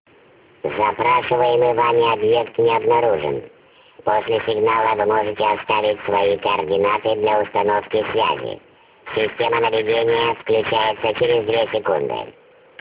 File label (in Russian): Avtootvet